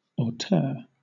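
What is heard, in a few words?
"auteur", British English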